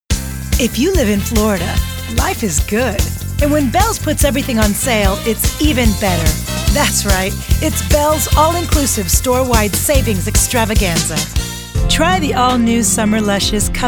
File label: English females